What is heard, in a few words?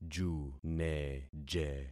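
"juneje", concatenated syllables; Affixing experiment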